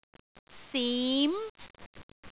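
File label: "sim2", Cantonese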